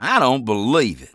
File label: conversation, English Voice